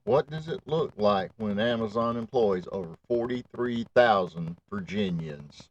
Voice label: Social Spot for Major Brand VO, Personable Narrator